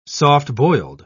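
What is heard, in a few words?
"soft-boiled", British English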